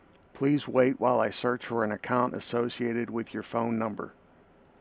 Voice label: Voice Account Lookup Greeting Message